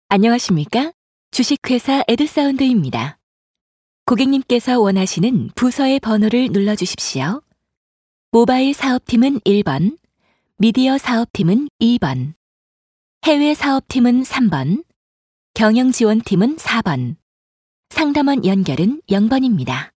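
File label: Korean female; ARS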